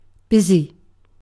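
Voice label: "busy", British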